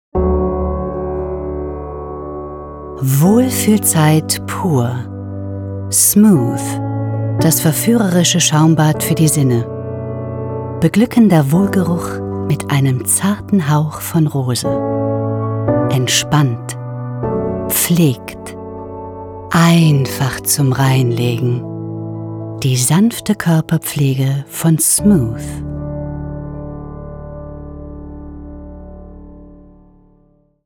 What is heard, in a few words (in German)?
Werbung